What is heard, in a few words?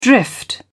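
"drift off", British English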